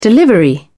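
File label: "delivery", British English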